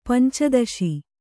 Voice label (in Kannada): "ಪಂಚದಶಿ", Kannada